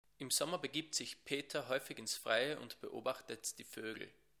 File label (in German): mikro pop 30cm